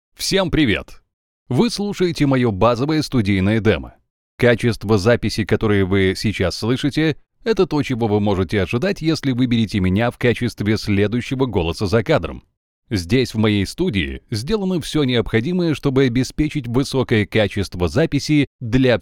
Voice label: russian commercial

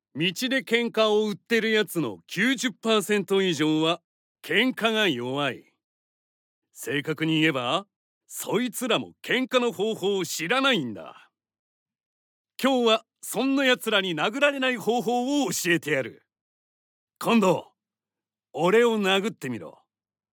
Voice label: japanese animation

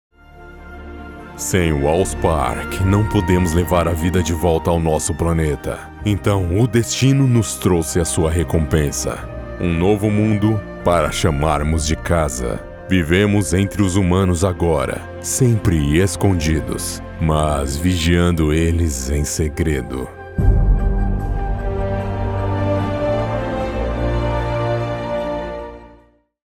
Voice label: portuguese brazilian animation

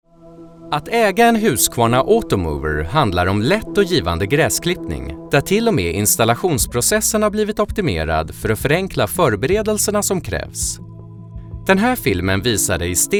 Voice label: swedish explainer videos, voice actor; professioneller schwedischer; Erklärvideos

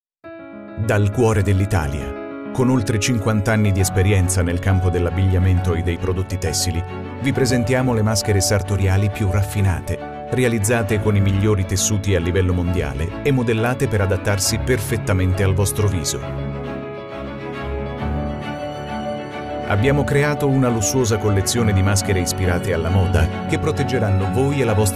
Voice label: italian corporate videos, voice actor

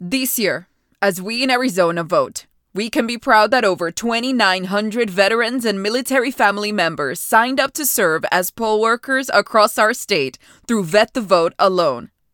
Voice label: english american political ads; professional bilingual (English and Spanish) voice actor

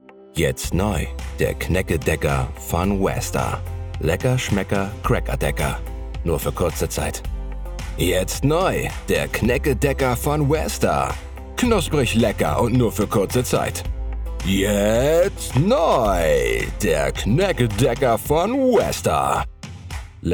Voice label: german commercial, Male; German Voice Actor; born in Schleswig-Holstein in 1987 and live near Hamburg; Germany; Native Voice Samples

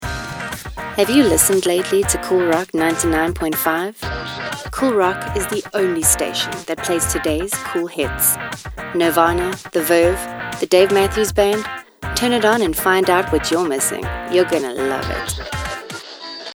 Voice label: english south african corporate videos

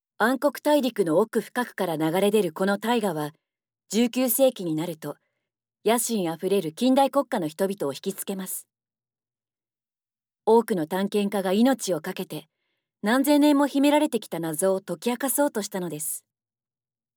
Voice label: japanese documentaries

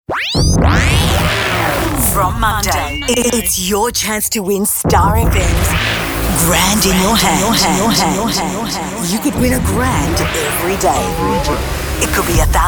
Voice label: English Australian promos

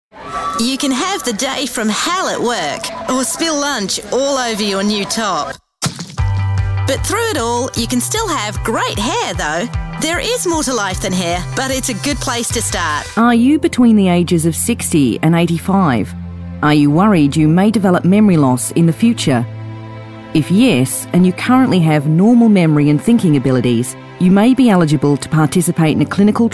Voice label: english australian commercial

